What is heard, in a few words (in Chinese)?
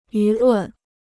"舆论", Chinese